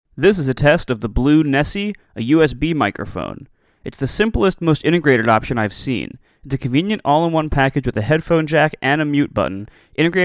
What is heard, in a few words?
Blue Nessie close distance, microphones